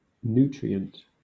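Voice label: "nutrient", British English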